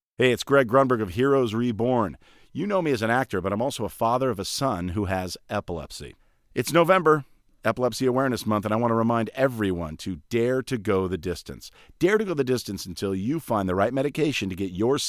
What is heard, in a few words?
spoken word lct840; lewitt